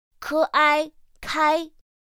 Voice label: "k āi kāi", pinyin; pinyin2; pinyinji

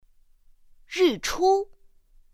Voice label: "rìchū", pinyin; pinyin2; pinyinji

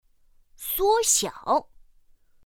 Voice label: "suōxiǎo", pinyin; pinyin2; pinyinji

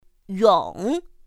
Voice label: "yǒng", pinyin; pinyin2; pinyinji

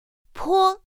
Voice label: "pō", pinyin; pinyin2; pinyinji

